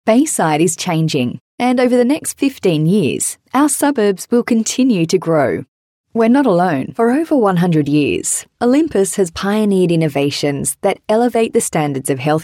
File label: Natural